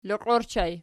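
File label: "liqi" ergative plural, Archi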